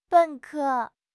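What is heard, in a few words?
"ben3 ke1", Chinese; 汉语口语新时代-初级下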